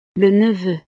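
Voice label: "le neveu", French; familia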